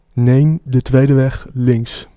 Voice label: 2nd Left, Dutch, male

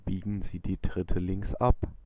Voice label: 3rd Left, German, male

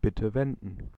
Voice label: U Turn, German male

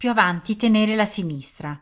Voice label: Italian, female; Ah Keep Left

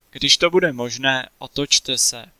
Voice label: Try U Turn, Czech, male

